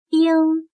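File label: "冰" Chinese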